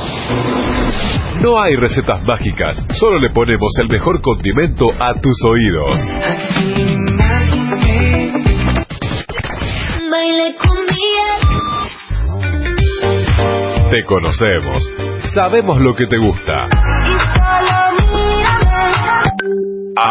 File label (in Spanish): Arte Prog Masculino Primavera